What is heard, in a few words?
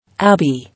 "Abbey", English